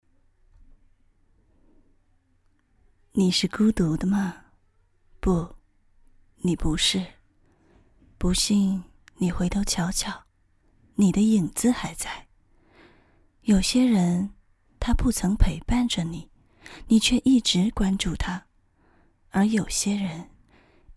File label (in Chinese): 鸡汤文 配音